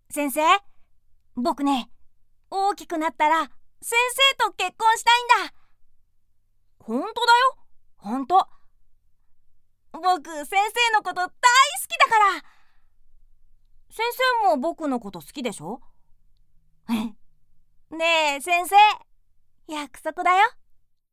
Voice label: Voiceover